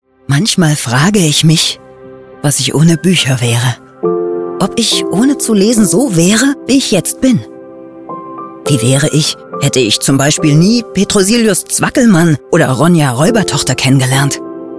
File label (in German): Buecher Werbung